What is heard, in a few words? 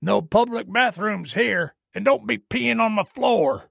trader male neutral greeting